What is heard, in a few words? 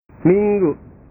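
"minggu", Indonesian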